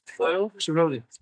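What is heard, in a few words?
Voice Acting es M, Spanish, male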